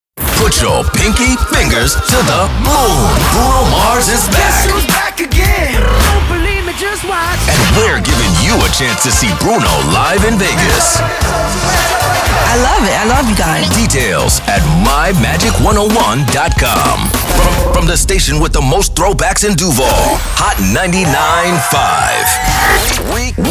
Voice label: URBAN AC; Radio Imaging